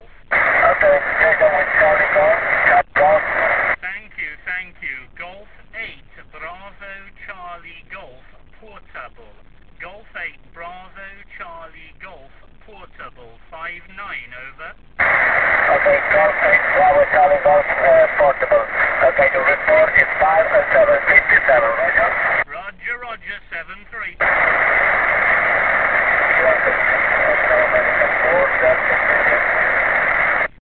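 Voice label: amateur radio contact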